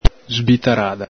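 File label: "sbitarada", Veneto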